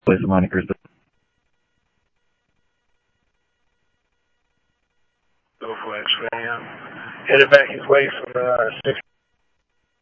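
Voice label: Phoenix police department, scanner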